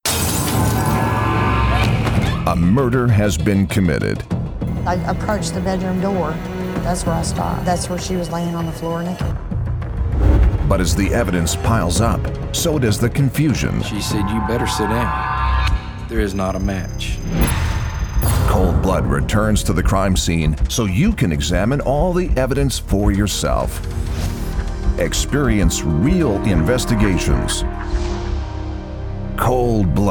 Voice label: english american movie trailers; Profundo e autoritário com clareza elegante e calor

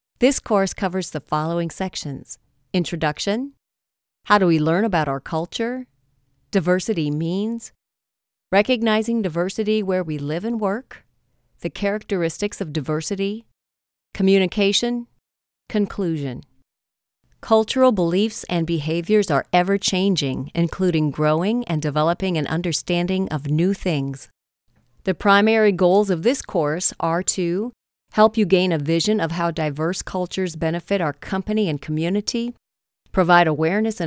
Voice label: english american e learning

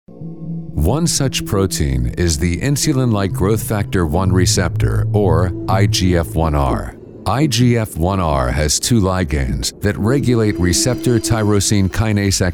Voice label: english american medical narration; North American English professional, working voice actor

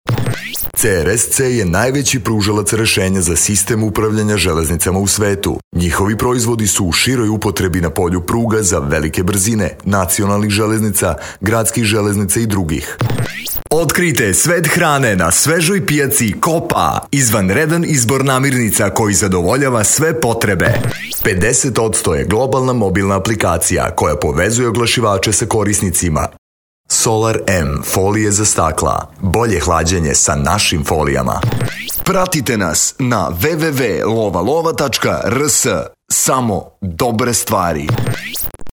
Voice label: serbian commercial

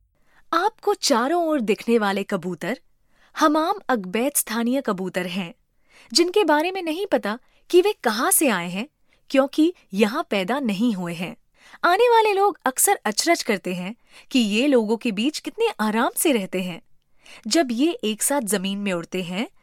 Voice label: Hindi audio guides; professionelle indische Sprecherin; indian english voice actors

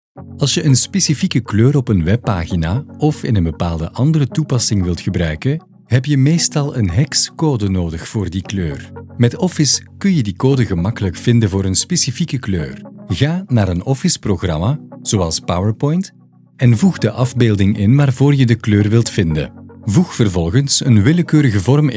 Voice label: flemish e-learning

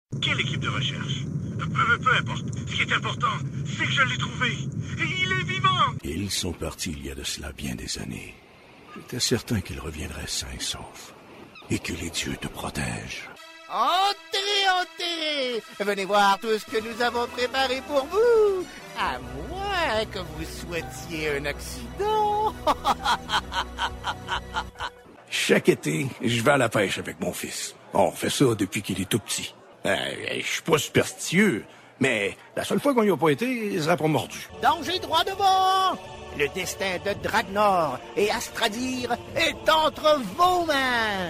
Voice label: french canadian video games

